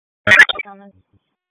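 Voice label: Raleigh Police Northeast District Dispatch, p25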